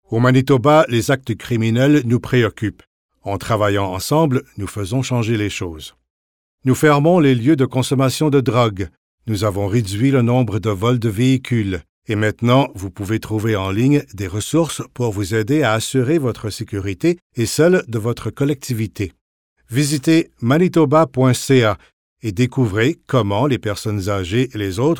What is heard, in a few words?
FRENCH